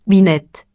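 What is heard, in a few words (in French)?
"binette" French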